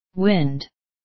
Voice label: "wind", word